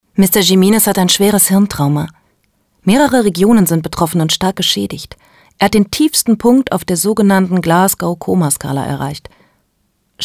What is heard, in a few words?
voiceover